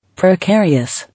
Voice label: "Precarious", English

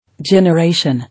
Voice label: "Generation", English

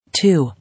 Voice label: "Two", English

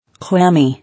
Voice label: "Clammy", English

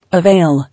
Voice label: "Avail", English

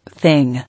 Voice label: "Thing", English

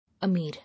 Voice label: "Amir", English

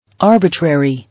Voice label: "Arbitrary", English

